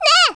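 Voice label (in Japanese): "ねえ", Japanese; Voice&Breath; Vocaloid 6.5.1; Otomachi; VOCALOID6 AI OtomachiUna Spicy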